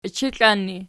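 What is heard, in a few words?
"ichiklan" ergative, Archi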